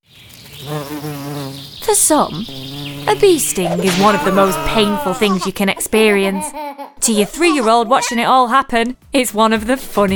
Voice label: radio ad campaign